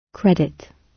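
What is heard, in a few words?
"credit", British English; Time, history; Thời gian, lịch sử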